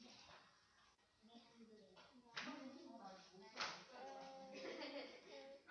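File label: "low", spoken word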